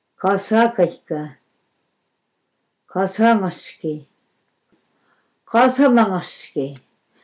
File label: little brother boy; kasa° kä̆qka ‘little brother’ :: kasa° ŋăqsy°kyï ~ kasama ŋăqsy°kyï ‘boy’; Nenets languages